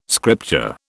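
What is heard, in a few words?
"scripture", British English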